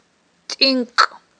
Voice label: "тIинкI", Aghul

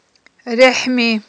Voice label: "рехIми", Aghul

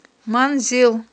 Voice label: "манзил", Aghul

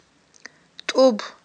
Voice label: "тIуб", Aghul

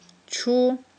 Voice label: "чу", Aghul

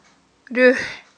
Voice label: "руьхI", Aghul

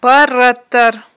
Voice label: "ппараттар", Aghul

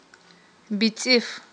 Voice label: "бицIиф", Aghul

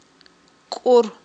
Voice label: "кIур", Aghul

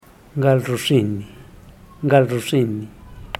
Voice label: gal ruxinni defecar, zapoteco teotitlan

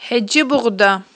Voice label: "хIеджибугъда", aghul

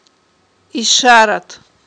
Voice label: "ишарат", Aghul

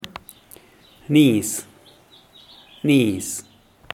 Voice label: "niiz", zapoteco teotitlan; mazorca

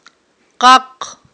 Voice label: "къакъ", Aghul

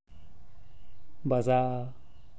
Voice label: "bazaa", zapoteco teotitlan

